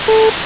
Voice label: no noise; asr testing